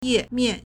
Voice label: "ye4mian4", Chinese